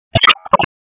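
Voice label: Raleigh Police Special Operations Tactical, p25; wake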